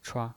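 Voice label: "chua", Mandarin Chinese pinyin voice, neutral tone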